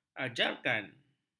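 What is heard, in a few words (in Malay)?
"ajarkan", Malay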